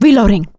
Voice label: reloading quiet, survivor voice; producer

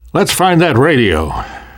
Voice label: world hospital, namvet, survivor voice

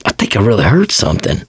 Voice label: going to die, survivor voice mechanic

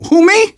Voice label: tank in train yard, manager, survivor, voice; Left 4 Dead 2; left4dead2 dlc2

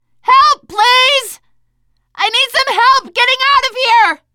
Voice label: call for rescue, teengirl; player; survivor; voice

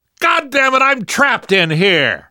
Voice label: call for rescue, survivor voice; Left 4 Dead 2; left4dead2 dlc3

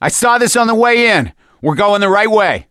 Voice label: misc directional, voice; gambler

